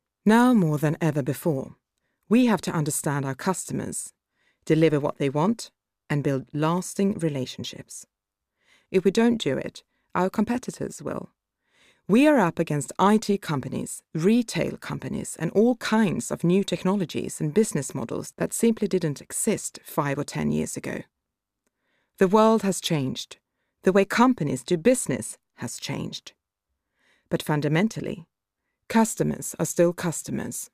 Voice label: English Corporate